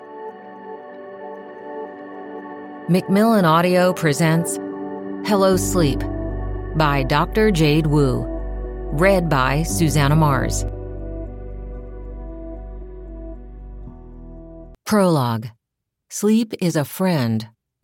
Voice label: women audiobooks

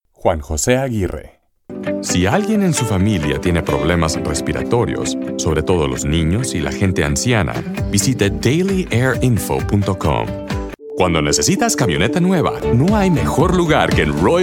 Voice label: spanish commercial

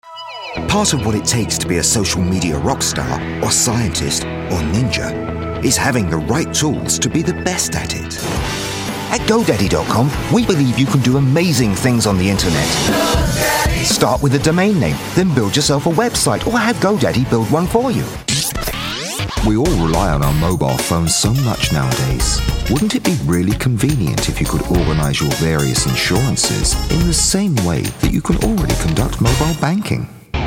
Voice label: british commercial

